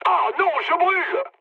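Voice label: on fire, mood medium, French; War Thunder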